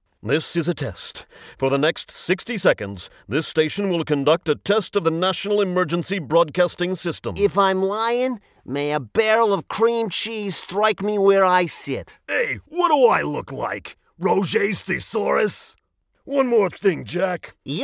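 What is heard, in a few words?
character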